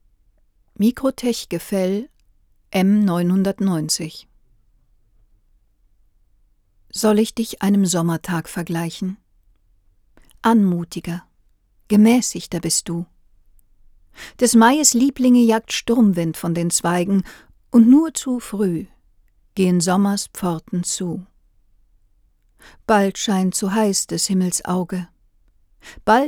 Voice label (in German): microtech gefell m 990 Sprache